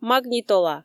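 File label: "магнитола", Komi, female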